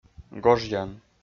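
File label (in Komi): "гожъян", Komi-Zyrian, male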